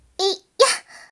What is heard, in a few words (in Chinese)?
攻击, BattleCry; 南宫五月